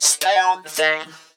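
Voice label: effects talk, Funky Vocals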